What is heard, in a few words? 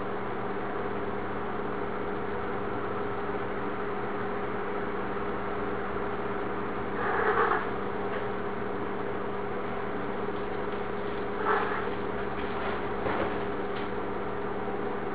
our appartment more whisperes